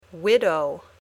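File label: "widow", English word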